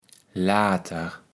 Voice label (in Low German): "laater", kaevels platt